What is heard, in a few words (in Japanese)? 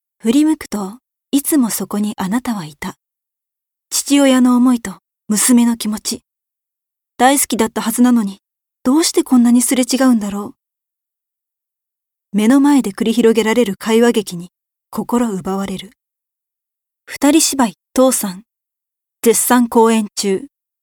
舞台PR